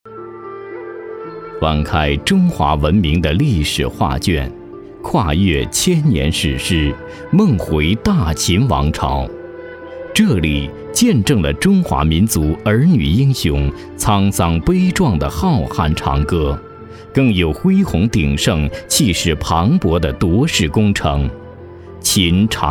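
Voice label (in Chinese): 男国大音; 秦直道; 纪录片配音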